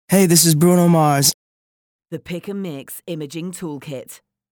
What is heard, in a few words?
Sweet Intro Example, Voice Filter; Radio Geretsried; Sendungen Kempfenhausen